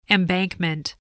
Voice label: "embankment", spelling

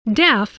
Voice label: "deaf", English

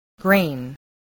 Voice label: "grain", spelling

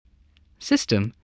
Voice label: "system", English

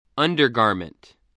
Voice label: "undergarment", English spelling voice

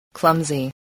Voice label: "clumsy", voice

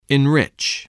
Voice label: "enrich", spelling

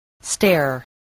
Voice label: "stair", voice spelling

